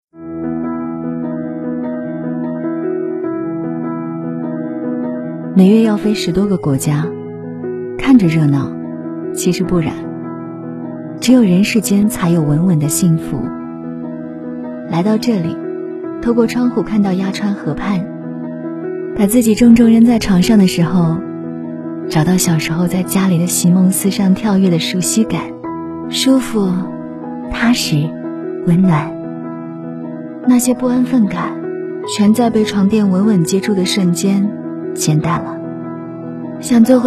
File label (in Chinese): 广告 席梦思 自然; 家居家电